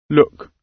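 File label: "look", British English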